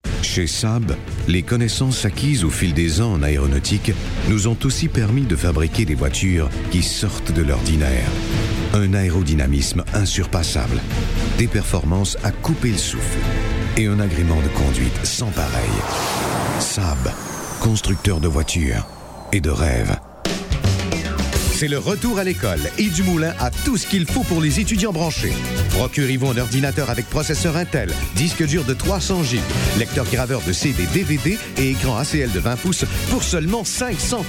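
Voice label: Canadian French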